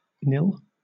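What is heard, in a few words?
"nil", British English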